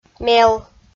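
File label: "мел", Komi, female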